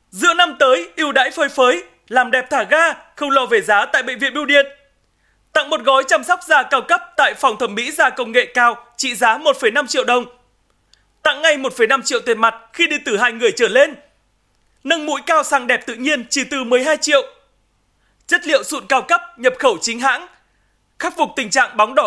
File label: Vietnamese North Male; Bệnh viện Bưu Diện